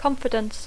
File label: "confidence", English